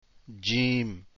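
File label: jeem, arabic letters; deen path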